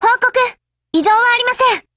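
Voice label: HELLO Japanese, Voice; GF Alarm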